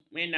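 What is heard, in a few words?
"mena", Malay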